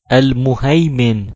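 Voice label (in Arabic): "المهيمن", Arabic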